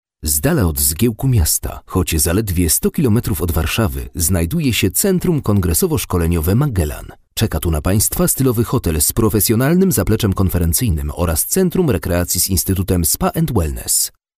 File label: polish male voice locutor polaco